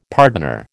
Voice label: "pardoner", American